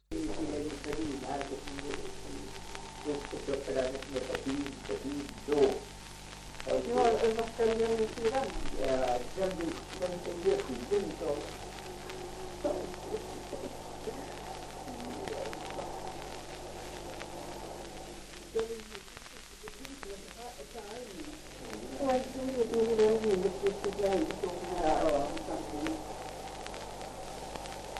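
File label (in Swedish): Tuna Medelpad, dialekter, svenska sagor; Folkminnen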